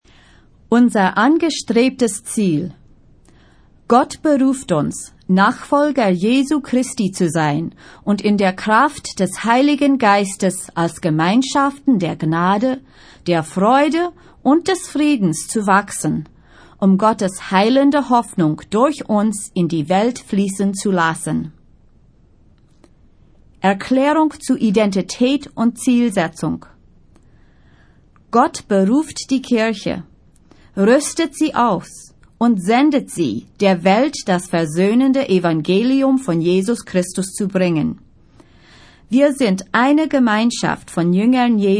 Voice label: German; Purpose Spoken Versions